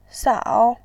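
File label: "sao", Mandarin Chinese, third tone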